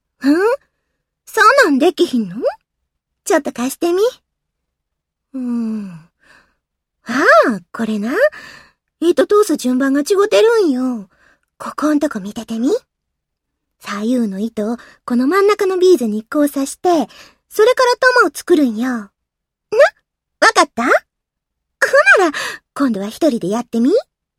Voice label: girl kansai